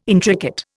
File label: "intricate", Indian